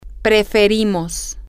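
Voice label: Spanish, we prefer, female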